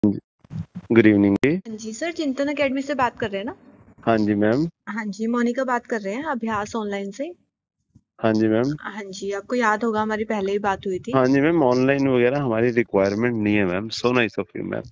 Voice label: call recording